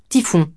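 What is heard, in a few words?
"typhon", French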